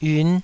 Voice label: "yun5", Taiwanese

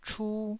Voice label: "chu1", Taiwanese

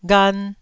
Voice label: "ghan1", Taiwanese syllable

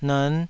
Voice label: "nern5", taiwanese

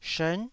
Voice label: "shern9", taiwanese Syllables

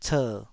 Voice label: "cer3", taiwanese syllables

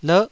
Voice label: "lerh9", Taiwanese syllable

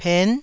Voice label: "pen9", taiwanese

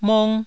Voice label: "mong1", taiwanese syllables

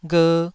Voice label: "gher2", Taiwanese syllable

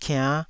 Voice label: "kiann5", taiwanese syllables

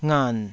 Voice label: "ngan3", taiwanese syllables

